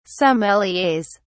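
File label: "sommeliers", British English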